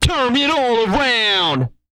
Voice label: around, Vocal, R&B Dance, hip-hop; TB HIP-HOP